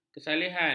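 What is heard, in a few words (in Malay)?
"kesalihan", Malay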